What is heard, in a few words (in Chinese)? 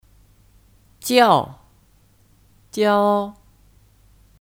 "教", Jiào; Kata kerja; Mengajar